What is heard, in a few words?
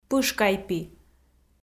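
"пышкайпи", Komi, female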